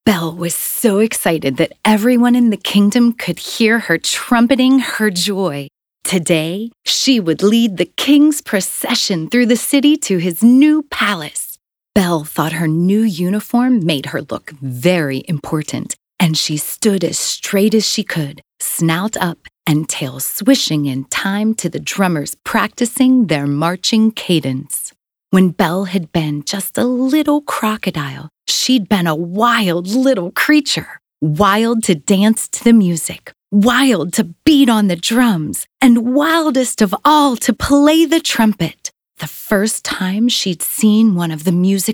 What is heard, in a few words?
Childrens Audiobook 3rd Person Narrative